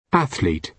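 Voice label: "athlete", British English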